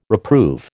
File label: "reprove", American